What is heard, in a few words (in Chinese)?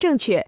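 "正确" Chinese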